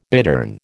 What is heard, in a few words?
"bittern", American